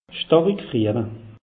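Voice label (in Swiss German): "starig", Alsatian; meteo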